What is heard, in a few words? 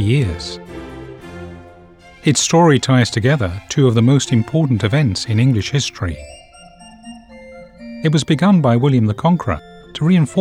York Abbey Museum Guide